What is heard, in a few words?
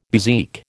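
"bezique", American English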